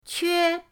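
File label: "que", Mandarin pinyin, first tone; pinyindct-open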